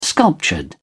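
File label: "sculptured", English, British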